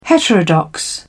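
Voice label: "heterodox", English, British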